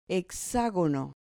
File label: "hexagono", Spanish